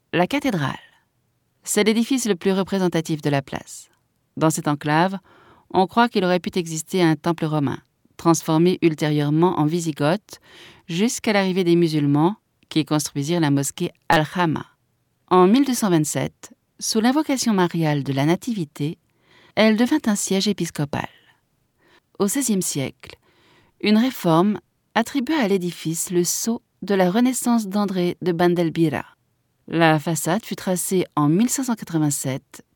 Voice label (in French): audioguia, frances; audioguia baeza